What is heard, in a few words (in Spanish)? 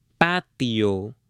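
"PATIO", hombre; MUEBLES; AppLenguaje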